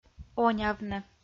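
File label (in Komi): "онявны", Komi, female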